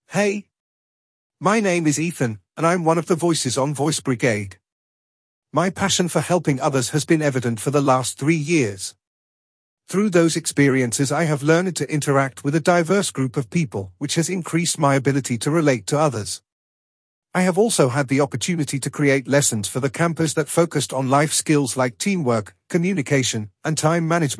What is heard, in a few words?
English UK